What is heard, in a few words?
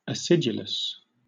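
"acidulous", British English; pronunciation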